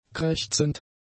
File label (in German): "krächzend", German; adjectives